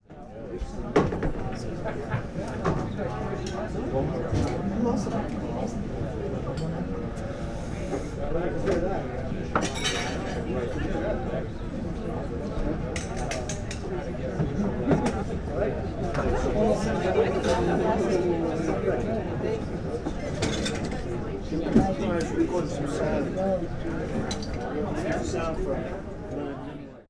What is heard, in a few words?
Restaurant Bar with People conversing